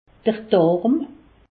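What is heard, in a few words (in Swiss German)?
"darm", Alsace, Haut Rhin; intestin; medecine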